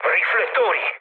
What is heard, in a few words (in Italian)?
bomb success, mood low, speech it; War Thunder